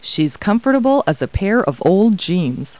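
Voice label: woman; Talking Clip Words; people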